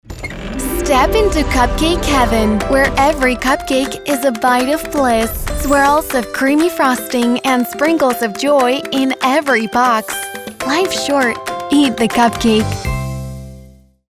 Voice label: Quick Bubbly

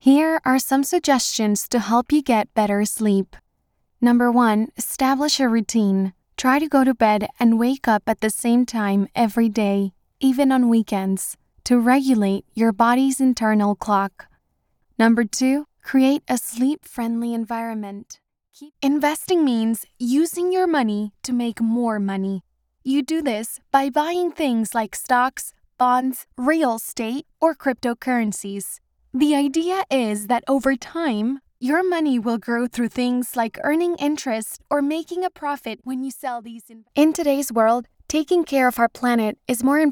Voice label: e-learning explainer english

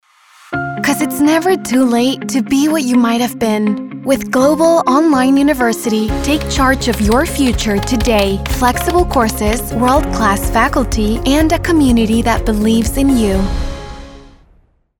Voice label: Sample Inspiring Hopeful

